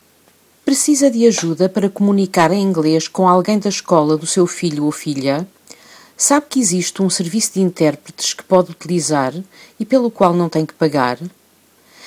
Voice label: portuguese; public schools; going to a public school